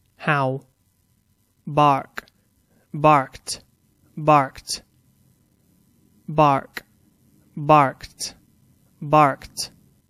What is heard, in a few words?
"bark", English; Verb